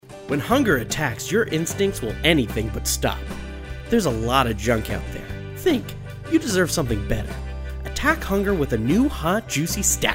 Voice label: voice over